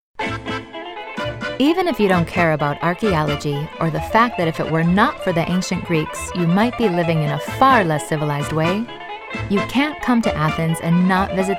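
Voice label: e-learning, American English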